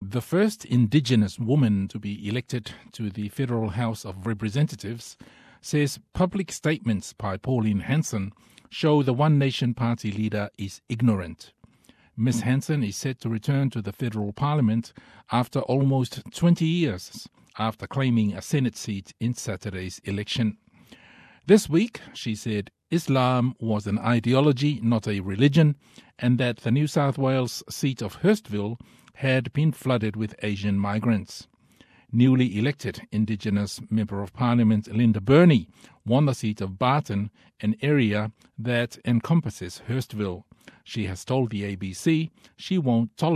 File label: samoan; SBS Samoan; Teena e Linda Burney manatu o Pauline Hanson